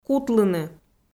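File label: "кутлыны", Komi, female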